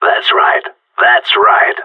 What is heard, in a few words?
last man standing, radio; GSG9